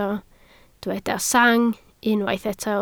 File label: "sang", Welsh